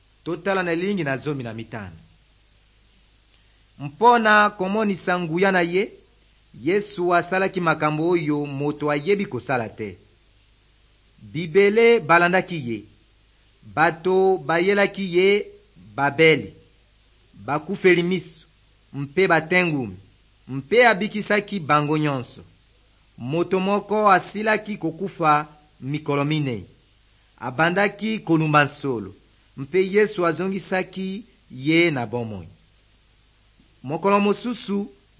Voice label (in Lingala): good news lingala west, drama